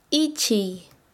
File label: Chanka, quechua; caminar